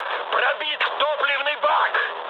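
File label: tank damaged, mood high, Russian; War Thunder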